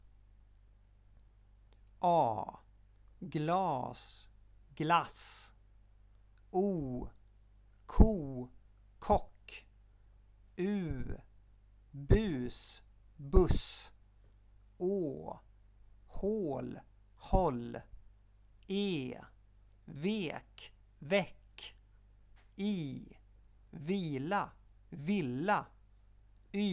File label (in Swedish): inledning, svenskabok; پیشگفتار - حروف صدادار کوتاه و کشیده